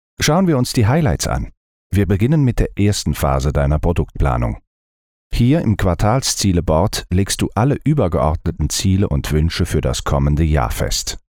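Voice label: German, male, eLearning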